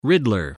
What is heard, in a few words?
"riddler", English spelling